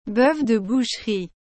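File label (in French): "bœuf de boucherie", French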